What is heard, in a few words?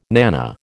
"nanna", American